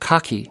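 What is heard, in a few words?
"cocky", US English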